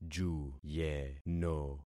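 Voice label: "juyeno", concatenated syllables; Affixing experiment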